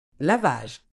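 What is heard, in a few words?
"lavage", British English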